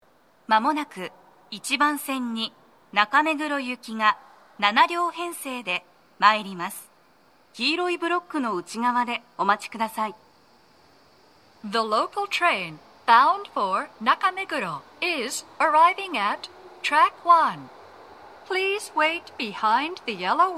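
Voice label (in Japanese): 茅場町駅, 1番線 接近放送, 女声, 7両編成, TOA天井型での収録です; 放送は「中目黒行 (7両編成)」です。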